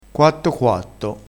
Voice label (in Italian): "quatto quatto", vocabolario dialettale